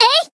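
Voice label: attack, Japanese; komachi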